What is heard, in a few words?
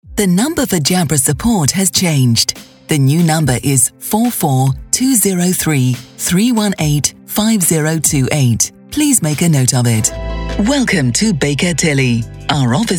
truly english voice voiceover ivr phone systems; showreel